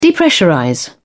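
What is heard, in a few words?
"depressurize", English, British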